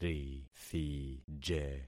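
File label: "rithije", concatenated syllables; Affixing experiment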